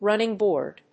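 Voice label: "running board", English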